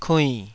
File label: "kuinn3", Taiwanese syllable